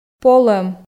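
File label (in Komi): "полӧм", Komi, female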